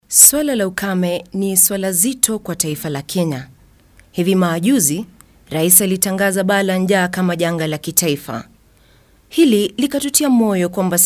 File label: Swahili Female